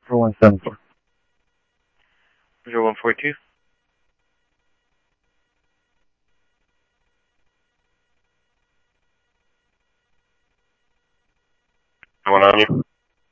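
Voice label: Mesa police department, scanner audio trunk; Mesa Simulcast; MESA PD 3 Red Mt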